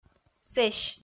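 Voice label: "сэсь", Komi, female; kpv audio dict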